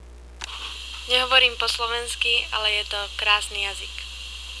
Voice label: Slovak